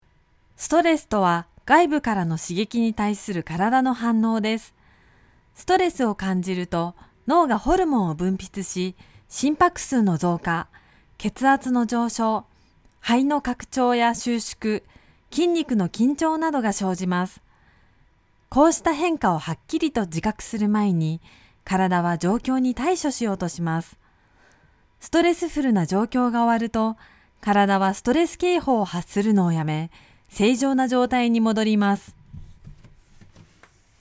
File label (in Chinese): 日语女 外语 160每分; 小语种